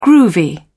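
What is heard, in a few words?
"groovy", British English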